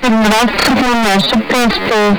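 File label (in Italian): "sembra", italiano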